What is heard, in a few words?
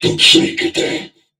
Patrol, Crewmen, en; Warframe; Corpus Crewmen; Crewman E; Dialog Barks Battle